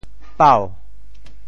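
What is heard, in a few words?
"pau2", Teochew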